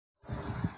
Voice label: Voice Note